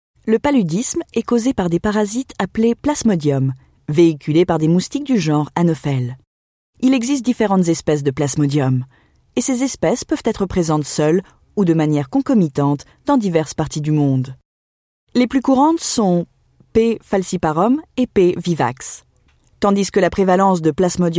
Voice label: French medical